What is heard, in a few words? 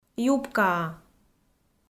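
"юбкаа", Komi, female; kpv audio dict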